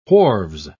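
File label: "wharves", British English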